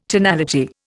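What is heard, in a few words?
"tondo", Indian; Dictionary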